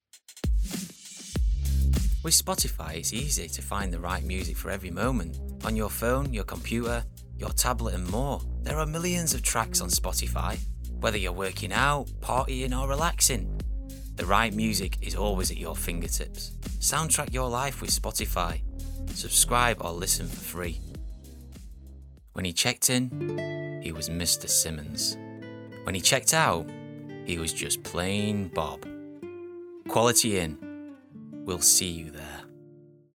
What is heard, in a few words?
Commercial